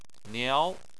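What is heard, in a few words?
"niao" second tone, Chinese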